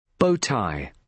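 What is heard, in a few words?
"bow tie", British English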